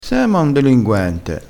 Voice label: "delinguente", crespinese

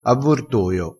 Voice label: "avvortoio", crespinese

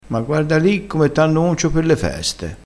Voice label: "concio", crespinese

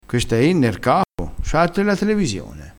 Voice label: "ner", dialettale crespinese

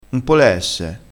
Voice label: "pole", crespinese

